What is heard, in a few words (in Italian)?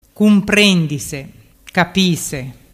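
"cumprendisecapise", Vernazzese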